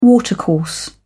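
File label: "watercourse", British English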